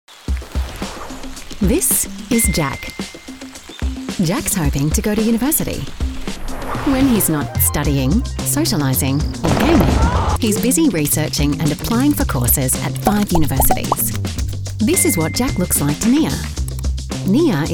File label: Natural Friendly CRM; Tribal